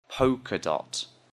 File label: "polka dot", English; Describing Clothes